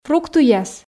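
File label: "фруктыяс", Komi, female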